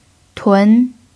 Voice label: "tun2", Chinese